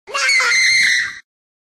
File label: screaming "NO"